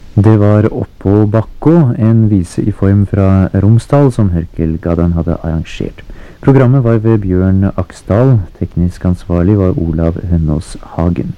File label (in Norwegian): Tale